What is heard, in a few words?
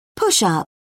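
"push-up", British English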